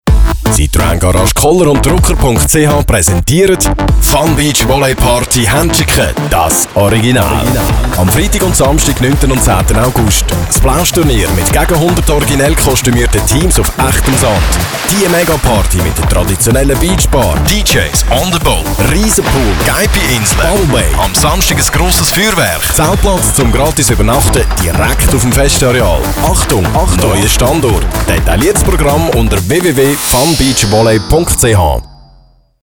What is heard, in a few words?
Radiospot; FunBeachVolley2013